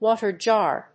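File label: "water jar", English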